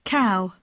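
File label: "cow", English; poitiers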